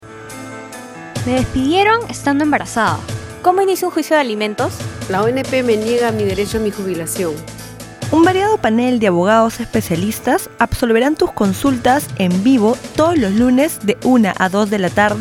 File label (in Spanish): PROMO; Derecho para todos